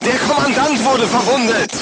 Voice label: voice message commander wounded, crew tanks german